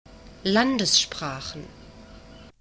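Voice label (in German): "landessprachen", German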